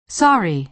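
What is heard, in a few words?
"sorry", American English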